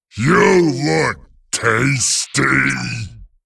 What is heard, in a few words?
Male Abomination Play